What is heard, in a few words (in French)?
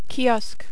"kiosque", French